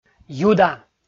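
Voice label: "юда", Komi, male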